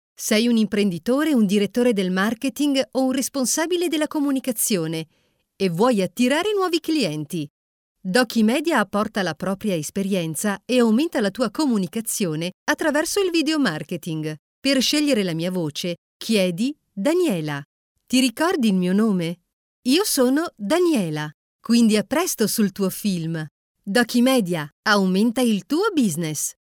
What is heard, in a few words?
ITALIAN